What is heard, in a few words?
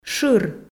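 "шыр", Komi, female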